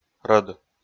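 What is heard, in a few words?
"рӧд", Komi, male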